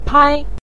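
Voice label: "pai", Mandarin Chinese neutral tone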